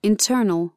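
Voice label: "internal", English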